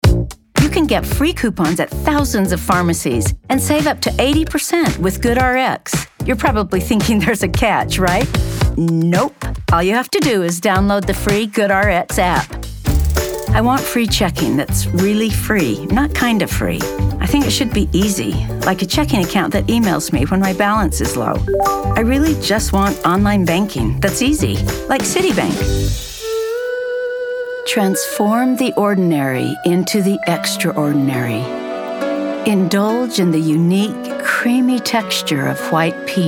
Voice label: Commercial